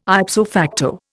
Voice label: "ipso facto", Indian

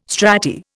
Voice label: strati, Indian

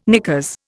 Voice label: "knickers", Indian

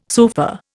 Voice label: "sofa", Indian

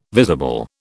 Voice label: "visible", British

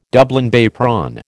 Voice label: "Dublin Bay prawn", American

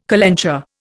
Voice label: "calenture", Indian

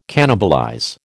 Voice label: "cannibalize", American

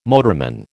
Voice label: "motorman", American

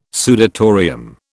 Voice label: "sudatorium", British

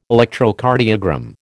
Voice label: "Electrocardiogram", American

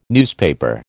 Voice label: "newspaper", American

